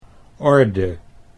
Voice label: "orde", Dutch